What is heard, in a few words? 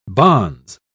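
"bonze", British English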